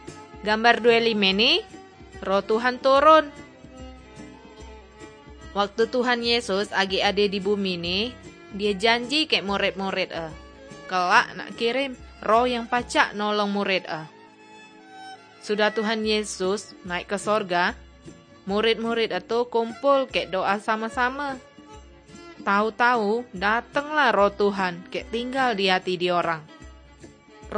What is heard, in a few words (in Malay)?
kabar baik good news malay bangka